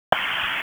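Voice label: Sheriff's Office Dispatch; WSO DISP 1; wake p25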